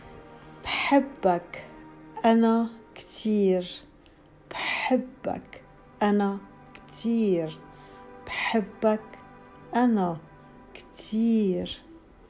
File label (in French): je t aime homme libanais